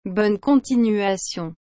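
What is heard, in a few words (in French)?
"Bonne continuation", French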